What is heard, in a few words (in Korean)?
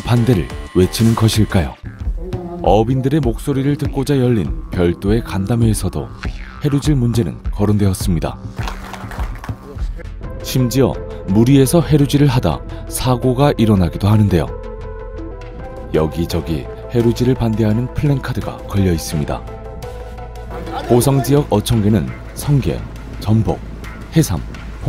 다큐멘터리 바다의 전쟁 심각한; 해루질